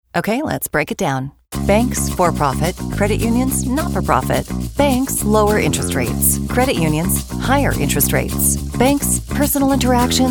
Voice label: Explainers and Web Commercials